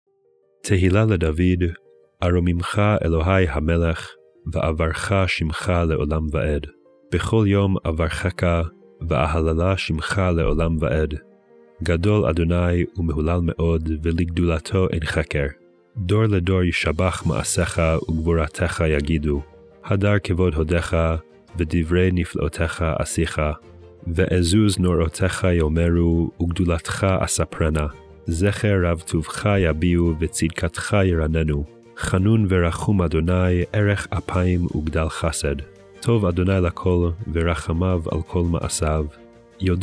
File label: poetry and prayer psalm hebrew